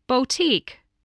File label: "boutique", spelling